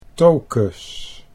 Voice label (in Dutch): "tokus", Dutch